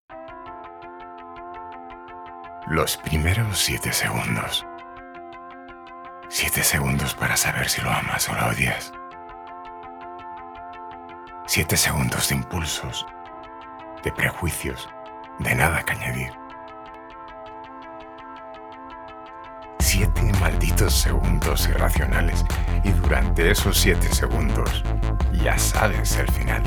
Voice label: male spanish castilian commercial